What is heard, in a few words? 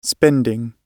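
"spending", British English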